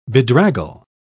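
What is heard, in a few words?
"bedraggle", English, British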